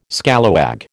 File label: "scalawag", American